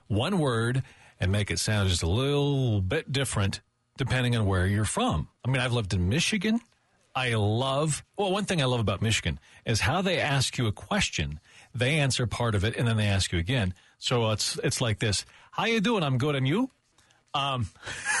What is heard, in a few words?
Accents